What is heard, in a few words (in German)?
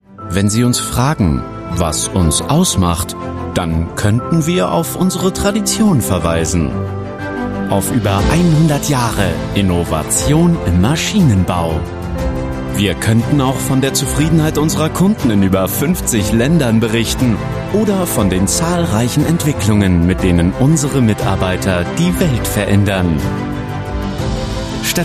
Imagefilm